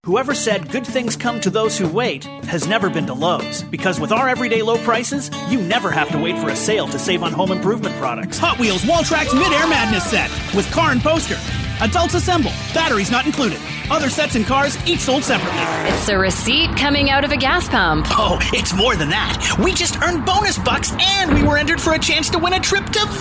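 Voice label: M EN CA commercial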